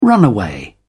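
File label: "runaway", British English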